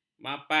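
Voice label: "mapan", Malay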